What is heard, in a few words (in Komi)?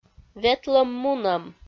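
"ветлӧм-мунӧм", Komi, female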